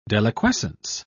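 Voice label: "deliquescence", British English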